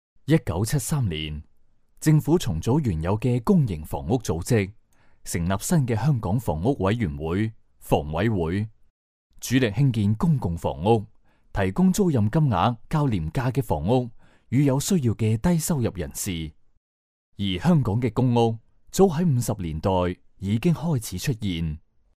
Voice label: 粤男 专题; 房委會

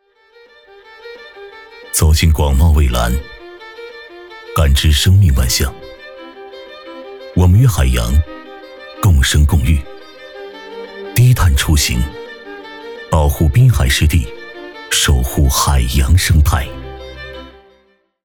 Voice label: 男 旁白; 守护海洋

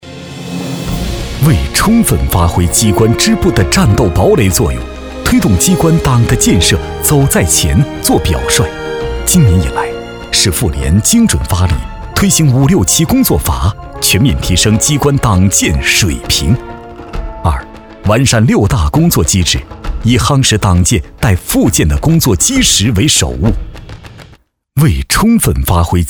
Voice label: 男; 党建

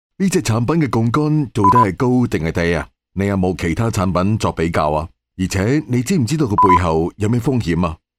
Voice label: 男【角色】教授; 粤男

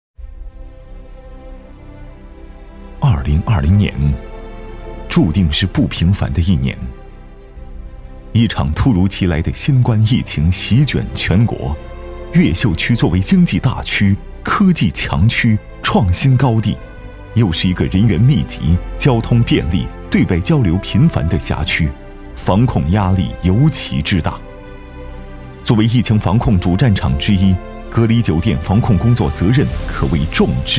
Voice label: 男 宣传; 党旗飘扬

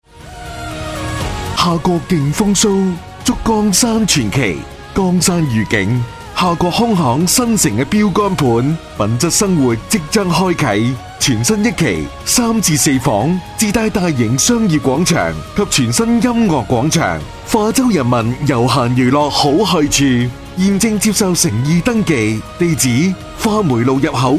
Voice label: 男【地产】; 粤男; 江山御景

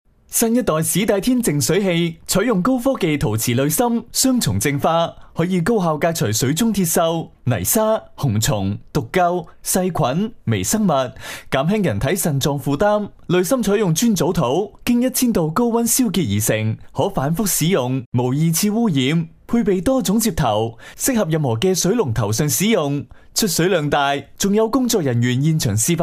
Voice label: 男 促销 欢快活泼, 粤男

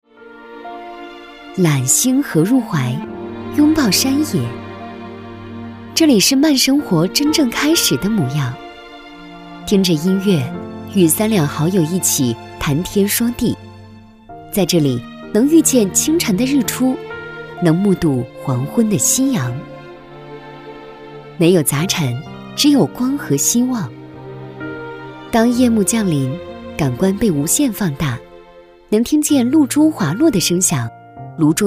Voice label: 女 旁白; 揽星河入怀

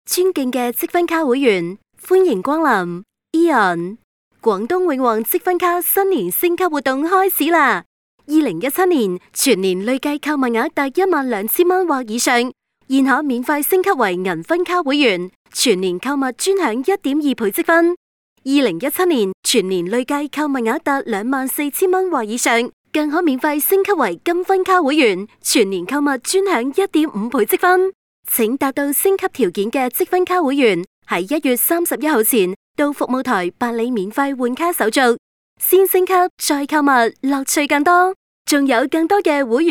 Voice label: 女【提示】商场提示

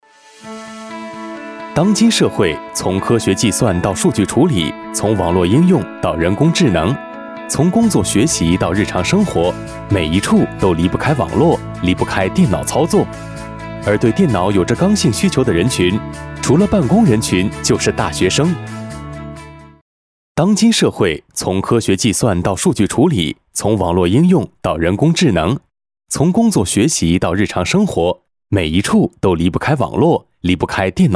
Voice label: 男 宣传 科技感

